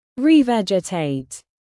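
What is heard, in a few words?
"revegetate", British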